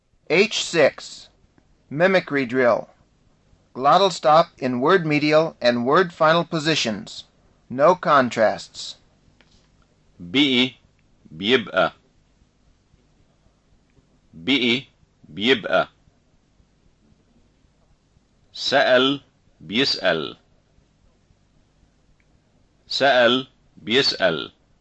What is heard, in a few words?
Levantine Arabic Pronunciation; FSI